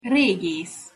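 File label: "regesz", Hungarian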